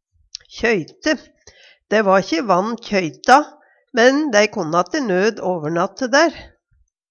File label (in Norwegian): kjøyte, Numedalsmål